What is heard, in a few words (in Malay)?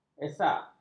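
"esak", Malay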